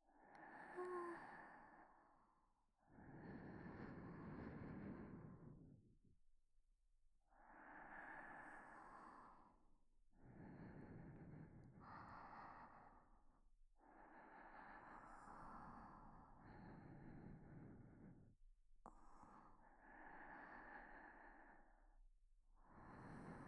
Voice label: 安眠 添い寝 ループ

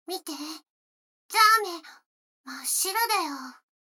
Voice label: 女の子台詞, ASMR

